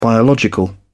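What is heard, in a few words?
"biological", British English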